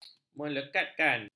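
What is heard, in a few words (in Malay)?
"melekatkan", Malay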